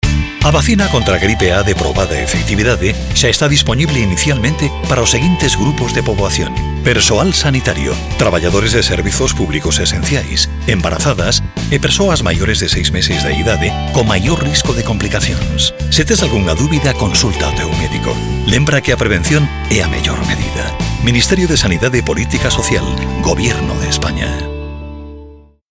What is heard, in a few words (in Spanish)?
GALLEGO